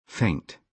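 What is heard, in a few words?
"faint", British English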